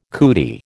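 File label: "cootie", American English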